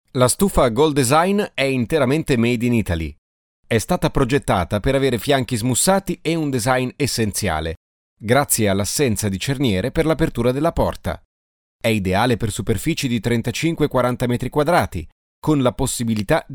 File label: ita male; lektoring